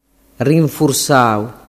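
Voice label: "rinfursau", Sicilian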